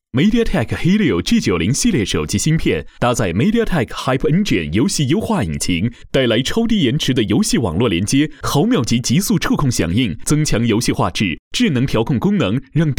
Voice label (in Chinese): 成熟大气, 科技感, 品质广告; MediaTek Helio G90